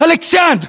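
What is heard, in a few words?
"aleksandr", battlechatter, Russian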